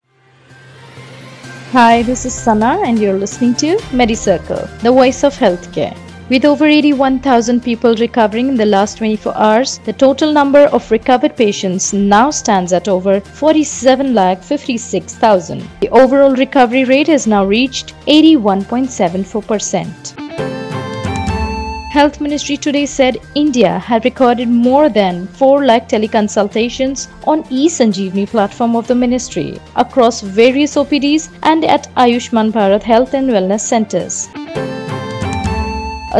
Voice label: english news